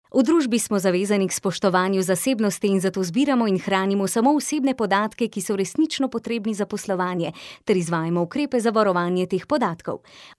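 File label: Slovenian, female; Professionelle Sprecher und Sprecherinnen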